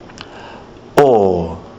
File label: o as in totara, kiwi english